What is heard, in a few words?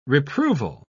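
"reproval", British English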